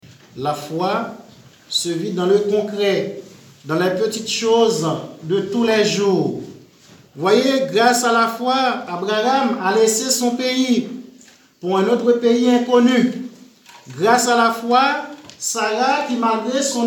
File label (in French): Homelie La foi concrete